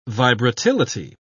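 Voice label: "vibratility", British English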